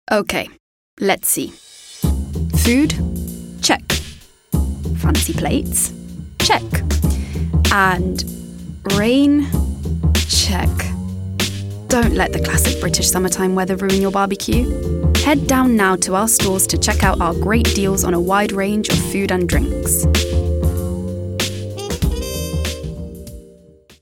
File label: Commercial, UK; GoLocalise